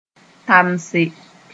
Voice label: "tamse", moore